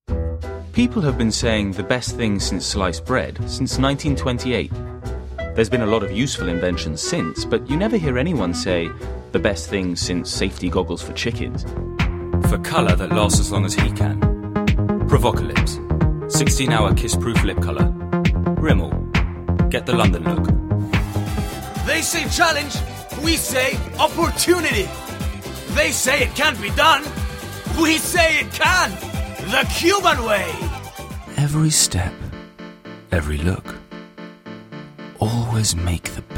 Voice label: commercial reel